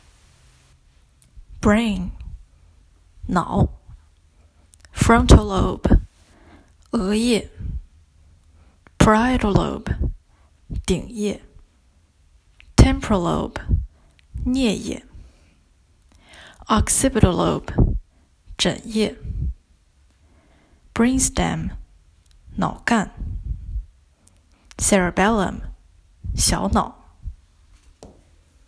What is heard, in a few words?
Mandarin Translation